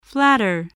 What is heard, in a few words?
"flatter", English